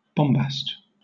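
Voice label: "bombast", British English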